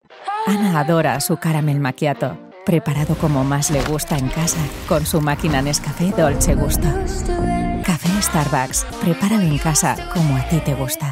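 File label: European Spanish, General; GoLocalise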